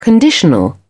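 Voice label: "conditional", British English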